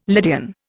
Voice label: "Lydian", Indian